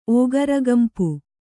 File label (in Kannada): "ಓಗರಗಂಪು", Kannada